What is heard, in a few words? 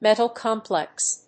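"metal complex", English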